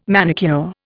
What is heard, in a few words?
"manicure", Indian